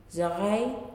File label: "One", Nalik